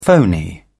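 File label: "phoney", British English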